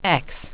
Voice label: "x", English